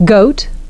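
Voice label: "goat", English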